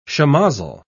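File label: "shemozzle", British English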